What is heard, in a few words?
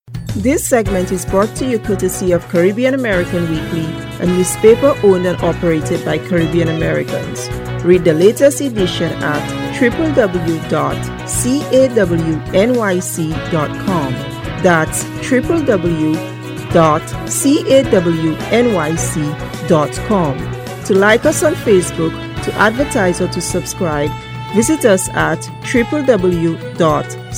Interview